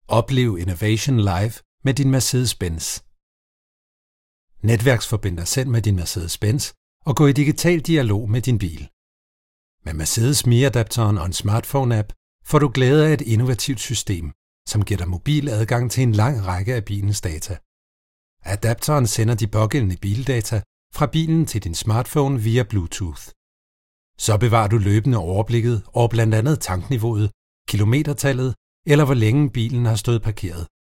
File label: danish e-learning